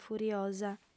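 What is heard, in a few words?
"furiosa", female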